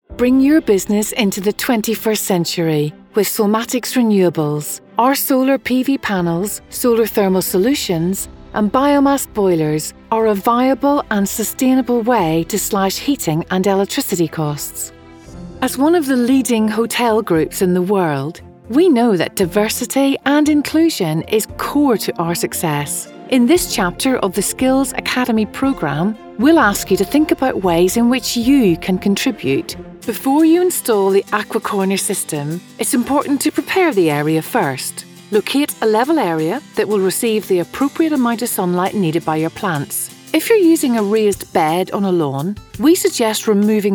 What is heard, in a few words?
Corporate